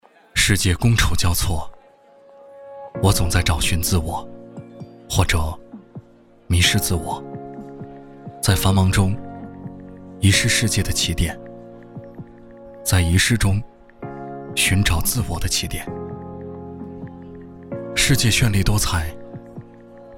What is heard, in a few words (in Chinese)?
男 旁白 走心旁白 温情自述